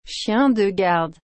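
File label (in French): "chien de garde", French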